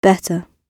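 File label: "better", British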